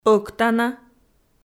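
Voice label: "ӧктана", Komi, female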